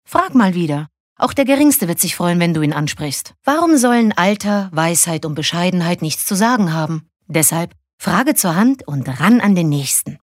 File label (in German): Werbung, Dynamisch